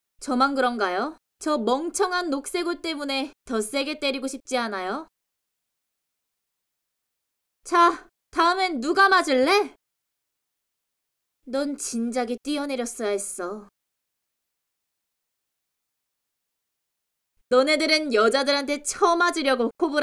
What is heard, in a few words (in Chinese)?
反派角色 凶狠